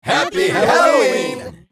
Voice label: Mixed crowd happy halloween

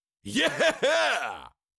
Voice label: Cartoon lion voice, "yeah"

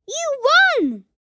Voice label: You won kid boy vocalization

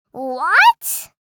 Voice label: "What", girl child kid

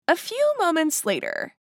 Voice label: A few moments later meme voice female; social media; Meme Sounds

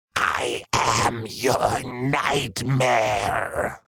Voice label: Demon beast imp monster i am your nightmare

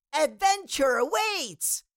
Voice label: Cartoon little child voice adventure awaits

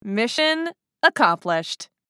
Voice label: Mission accomplished game win female voice; success

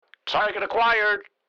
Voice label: Military radio voice; target acquired

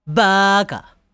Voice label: Idiot anime boy character

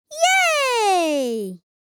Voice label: Yay excited boy child kid

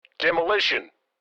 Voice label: Military radio voice command "demolition"

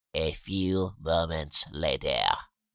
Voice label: A few moments later meme voice male; Meme Sounds; social media

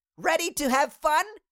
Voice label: Cartoon little child voice; ready to have fun

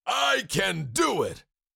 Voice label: Cartoon lion voice; i can do it

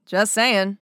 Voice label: Just saying meme voice female

